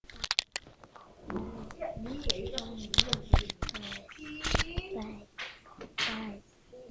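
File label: "bicycle", spoken word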